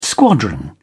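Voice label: "squadron", English, British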